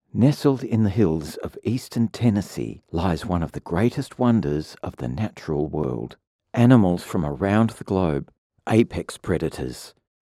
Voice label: Radio Commercials, Natural Attenborough Friendly, male; Zoo